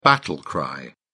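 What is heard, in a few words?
"battle cry", British English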